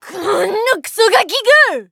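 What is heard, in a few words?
skill, Japanese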